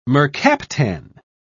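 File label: "mercaptan", British English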